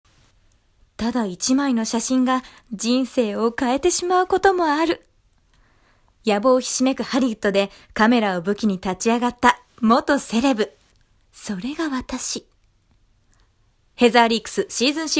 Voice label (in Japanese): aoi kokoro vs narration